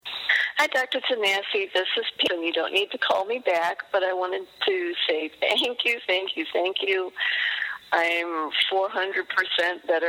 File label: voicemail